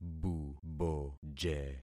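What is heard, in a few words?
"buboje", concatenated syllables; Affixing experiment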